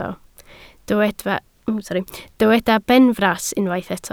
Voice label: "benfras", Welsh